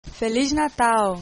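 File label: christmas, Portuguese; Feliz Natal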